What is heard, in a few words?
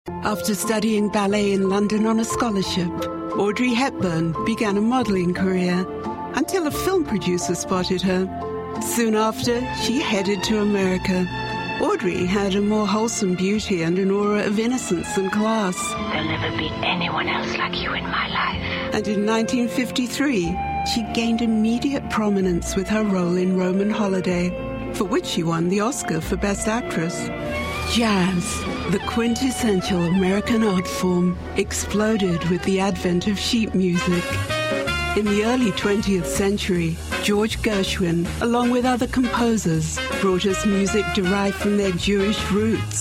British Voice Female Documentaries